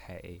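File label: "pei" third tone, pinyin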